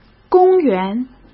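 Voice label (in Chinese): "公园", Mandarin Chinese; hsk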